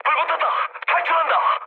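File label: Korean on fire mood med; speech; War Thunder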